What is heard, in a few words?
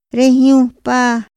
"ra hñupa", Otomí; Días de la semana; INPI; INALI